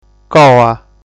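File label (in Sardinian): "Coa", Sardinian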